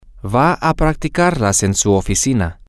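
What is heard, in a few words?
She is going to practice them in her office, Spanish, male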